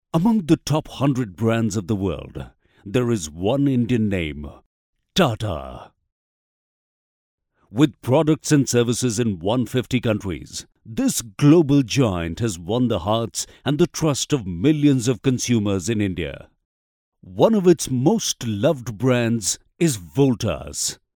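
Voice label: English M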